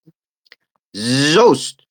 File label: voice message